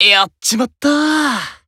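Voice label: Voice Emo Damn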